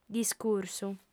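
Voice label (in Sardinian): "discursu", female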